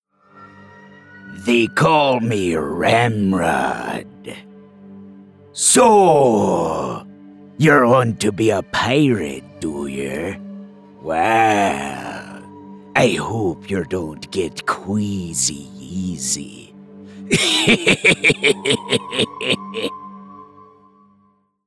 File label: Pirate with BG MZK